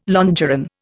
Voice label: "longeron", Indian

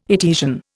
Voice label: "Etesian", Indian

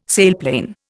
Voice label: "sailplane", Indian

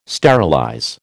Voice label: "sterilize", American

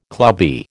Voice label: "clubby", American

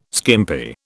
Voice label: "skimpy", British

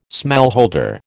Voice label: "smallholder", American

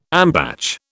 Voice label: "ambatch", British

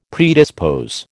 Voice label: "predispose", American

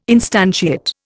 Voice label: "instantiate", Indian

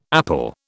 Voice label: "apple", British

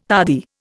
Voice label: "tardy", Indian

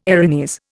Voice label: "Erinys", Indian

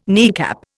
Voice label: "kneecap", Indian

